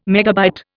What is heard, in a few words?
"megabyte", Indian